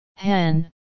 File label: hen, farm animals